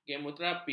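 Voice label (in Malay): "kemoterapi", Malay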